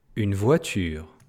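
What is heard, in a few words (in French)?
"voiture" French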